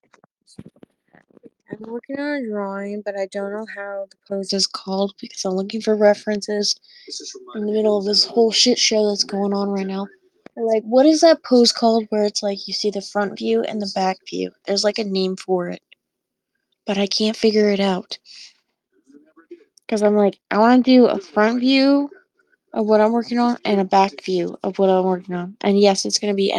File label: voice message